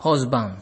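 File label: "hosban", Nigerian Pidgin, male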